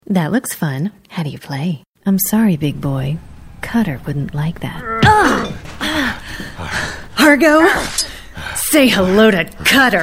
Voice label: women video games; Grounded, upbeat, confident, & fun. An audible dose of positivity and warmth.

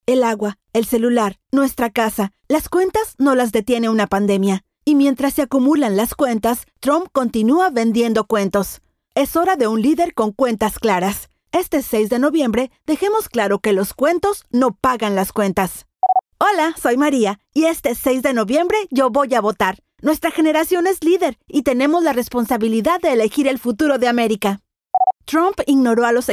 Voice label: spanish political

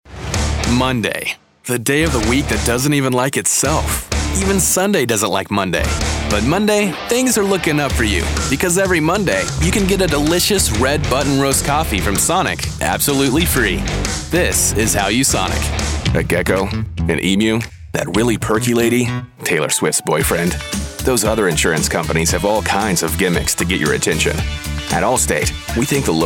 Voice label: men commercial; Your buddy next door to the gravelly whisky connoisseur, the Russian Bratva to the demonic overlord.

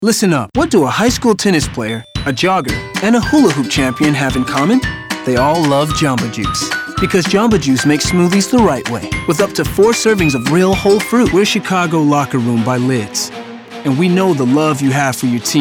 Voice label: men commercial; Coolest guy in the room. Athletic, quirky and mature.

